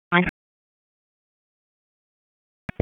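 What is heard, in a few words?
Police Southwest District Disp, radio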